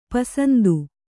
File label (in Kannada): "ಪೂಸಂತೆ", Kannada